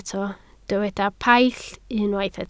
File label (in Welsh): "paill" Welsh